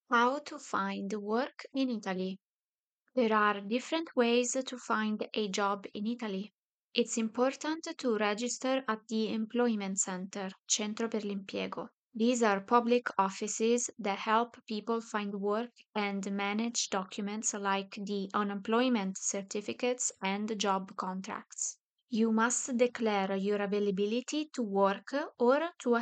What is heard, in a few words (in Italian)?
il lavoro, inglese